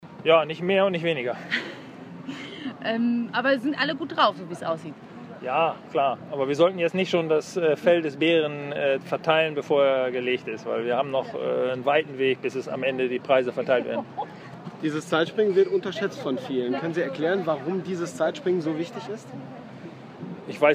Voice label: German; FEI Aachen Beerbaum